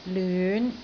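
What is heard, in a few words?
"lyun5", Cantonese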